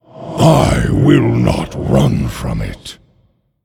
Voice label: Undead Emote; LichKing